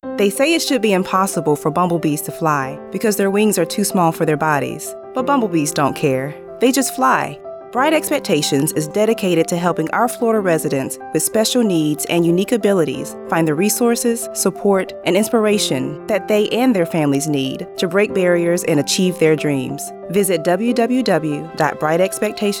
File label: PSA Radio; bright expectations